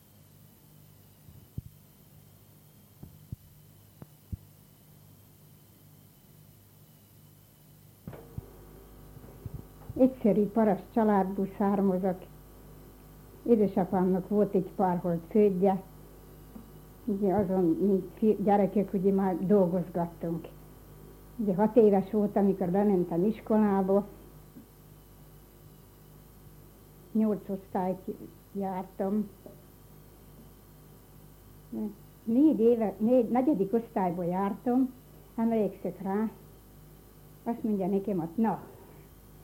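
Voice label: dialect collections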